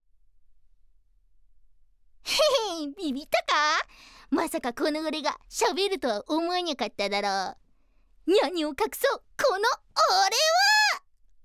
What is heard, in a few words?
Mascot Cat